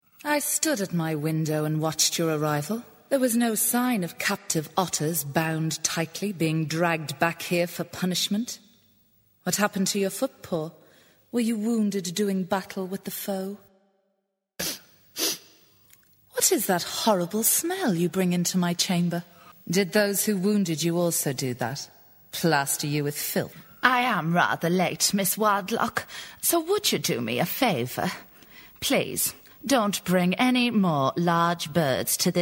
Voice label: Audiobook Character Compilation

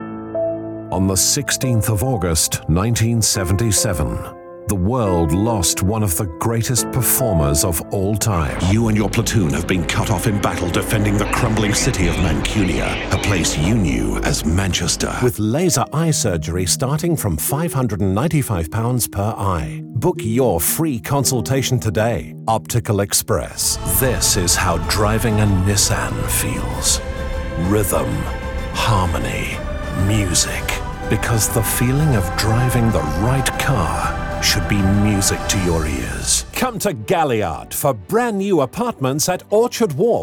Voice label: Voice Over Showreel Commercials

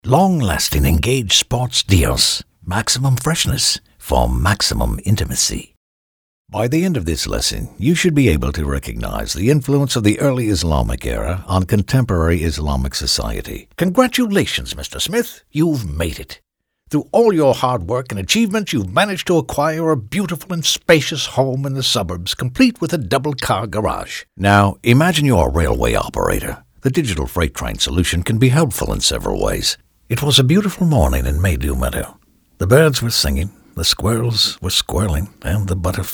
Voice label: British

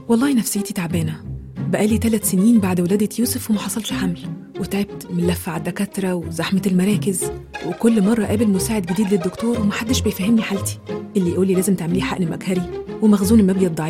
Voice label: Character reel

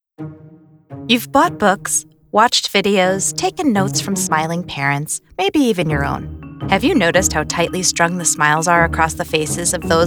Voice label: E Learning

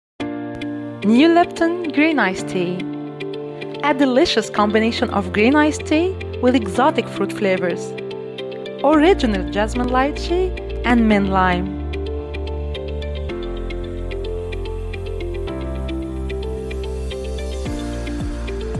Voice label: English; Lipton

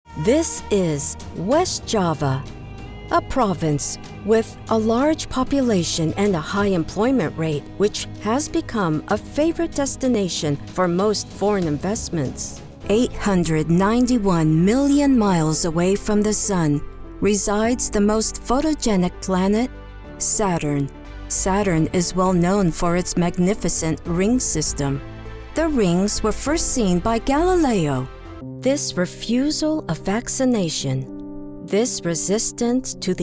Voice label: DOCUMENTARY SHOWREEL

